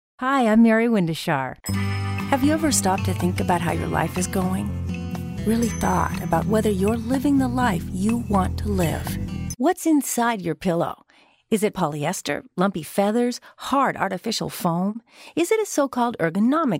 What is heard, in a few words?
commercial voice